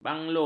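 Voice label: "banglo", Malay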